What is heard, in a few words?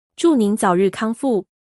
get well soon, mandarin; 祝您早日康復